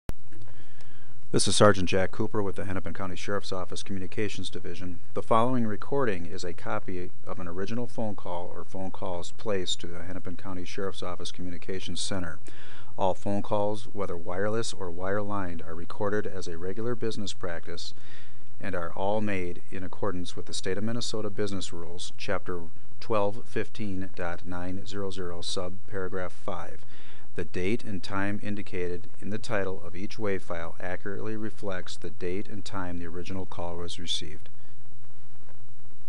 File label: announcement; thompson case